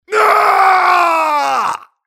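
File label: mobster pain critical death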